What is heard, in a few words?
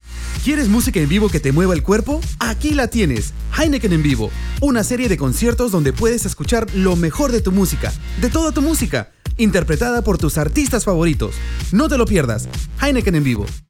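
Spanish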